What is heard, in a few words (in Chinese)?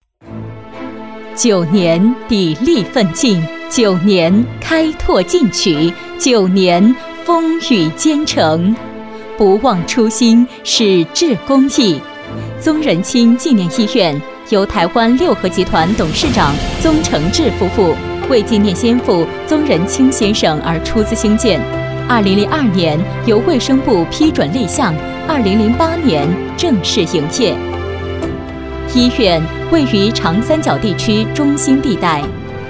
女 宣传 医院